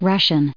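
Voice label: "russian", American English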